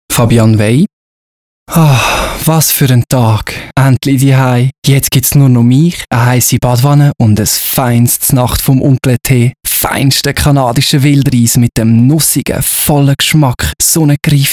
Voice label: Spot Geniess; Sprecher-Samples; Geniessen; Aufgenommen im NJP Tonstudio AG in Küsnacht, ZH.